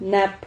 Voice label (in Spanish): "nap", Silabas